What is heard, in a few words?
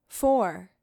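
"Four", female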